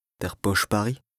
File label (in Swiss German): "d'r Bàschbarri", Alsace, BAS RHIN; Bastberg; lexique indispensable